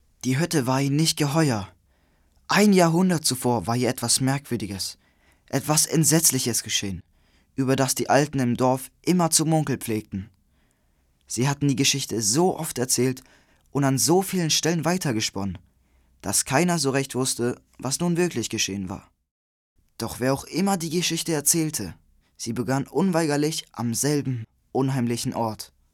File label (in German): Jungen; Die Hütte